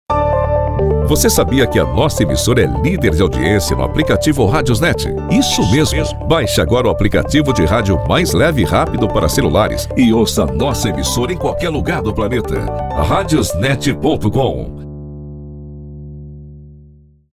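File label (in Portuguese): Você sabia nossa emissora lider masculino; RadiosNet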